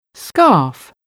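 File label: "scarf", English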